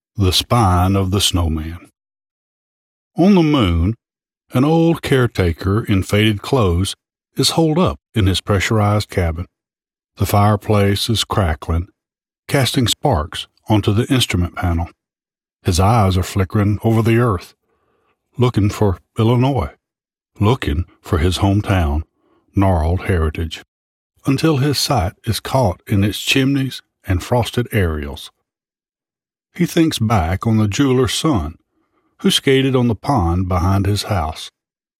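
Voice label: English US, narration; GoLocalise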